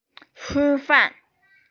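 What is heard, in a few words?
"chi1fan4", Mandarin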